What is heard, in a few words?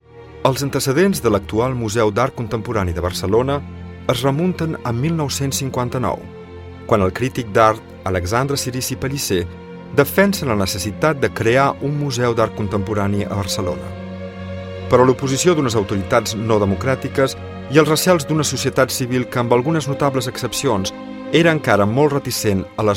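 Catalan, Documentary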